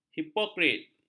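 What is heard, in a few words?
"hipokrit", Malay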